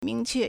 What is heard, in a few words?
"ming2que4", Chinese